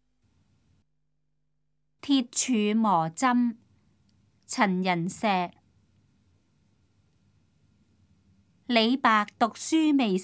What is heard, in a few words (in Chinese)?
cantonese; 粵語; 鐵杵磨針